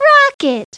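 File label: "rocket", English; Treasure Galaxy; Learning Company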